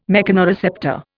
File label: "mechanoreceptor", Indian